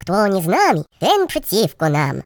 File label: Just you wait, Russian; hedgewars; Russian pl